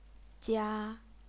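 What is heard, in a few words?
"jia", Chinese, first tone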